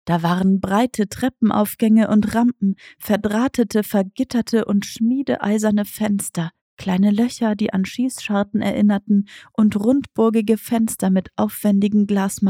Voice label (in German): Hoerprobe Fantasy, hoerbuchsprecherinnen; Ragnamar